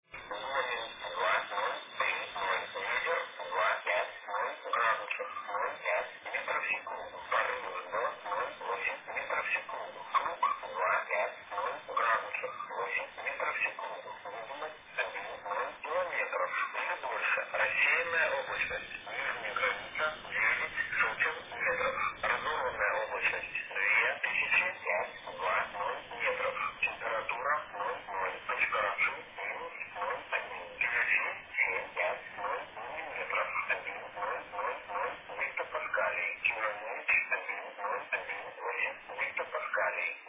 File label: ATIS rus; Homiel